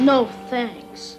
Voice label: "no thanks" boy; Voice; expletives